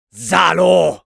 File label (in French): jeers, fr; "Salauds !"; Medic; Robot Medic